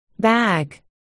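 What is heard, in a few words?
"bag", spoken word, articulation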